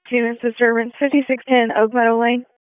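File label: Police Southwest District Disp, radio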